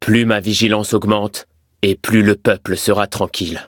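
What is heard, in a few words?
level up, French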